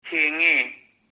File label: Yes, of course., Kuki; Hae kne.